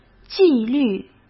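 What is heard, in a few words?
"纪律" Mandarin Chinese; chinese flashcards; hsk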